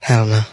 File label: "hell no", expletives